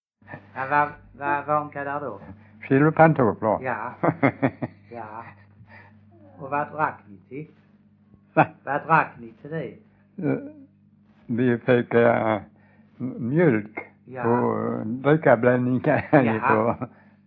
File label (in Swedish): Stehag Västra Sallerup SK, dialekter; Folkminnen